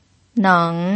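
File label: "neng", pinyin, third tone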